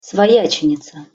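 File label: "svojacenica", Russian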